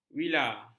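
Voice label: "vila", Malay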